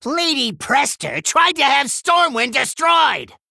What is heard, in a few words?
Male Gnome; Story Hero Scabbs; BOM Scabbs Pre Mission8